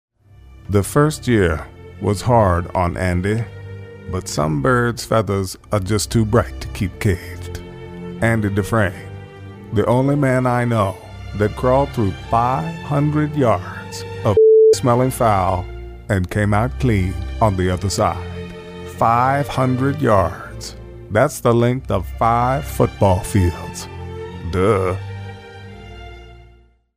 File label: impression; Morgan Freeman